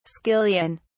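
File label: "skillion", British English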